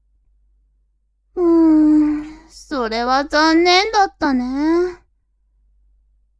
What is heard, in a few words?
woman